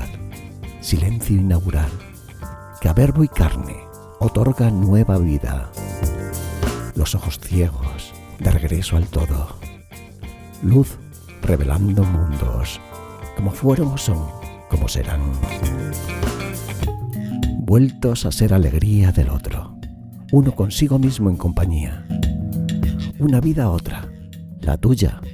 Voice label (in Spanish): en voz baja poema romantico; poemas recitados